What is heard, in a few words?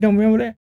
SPOKEN, Blues Soul, TALK